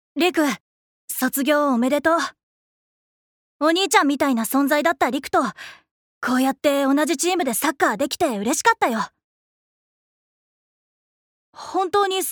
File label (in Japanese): アニメ